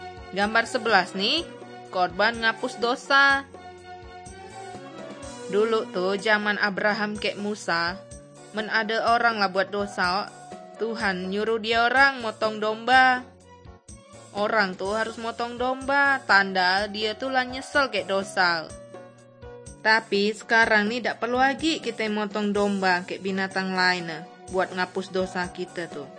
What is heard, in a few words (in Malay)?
kabar baik good news malay bangka